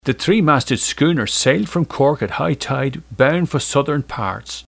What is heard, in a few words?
irish